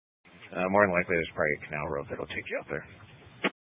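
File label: Incident Tac 3, Scanner audio recording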